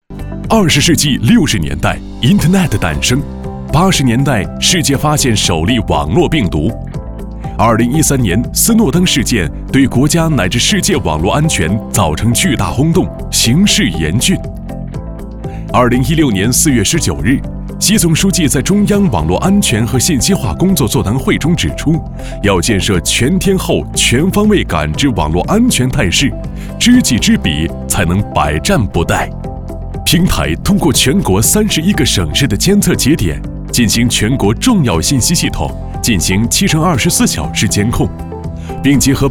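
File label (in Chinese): 男国大音; MG 态势感知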